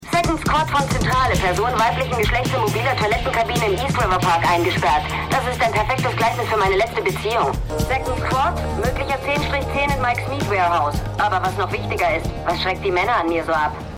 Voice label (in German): Disponentin; The Unusuals